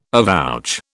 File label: "avouch", British